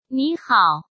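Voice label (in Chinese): "你好", Chinese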